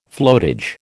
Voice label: "floatage", American

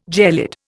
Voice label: "gelid", Indian

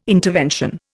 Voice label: "intervention", Indian

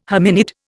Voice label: "hominid", Indian

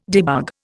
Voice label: "debug", Indian

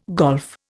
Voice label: "golf", Indian

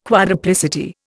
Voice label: "quadruplicity", Indian

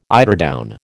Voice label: "eiderdown", American

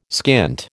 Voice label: "skint", American

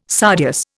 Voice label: "sardius", Indian

